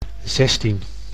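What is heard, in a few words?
"zestien", Dutch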